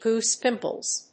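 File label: "goose pimples", English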